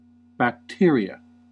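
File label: "bacteria", American English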